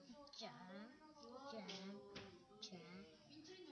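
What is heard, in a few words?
"jab", spoken word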